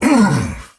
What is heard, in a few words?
Pain Heavy; Warframe; Dax Heavy; Battle Barks